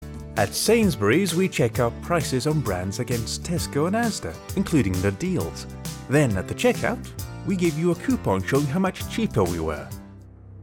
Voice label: Commercial RP; Sainsburys